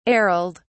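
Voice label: "ariled", British English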